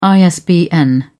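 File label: "isbn", British English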